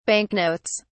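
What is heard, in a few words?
"banknotes", British English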